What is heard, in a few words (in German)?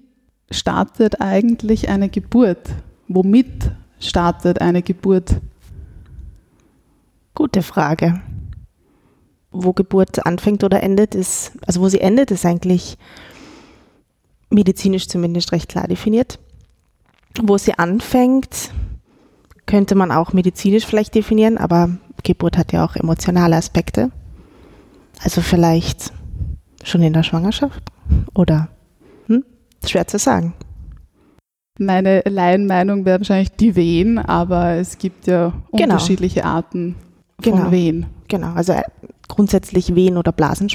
mundart